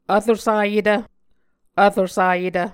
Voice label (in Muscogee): "vrosayetv", muscogee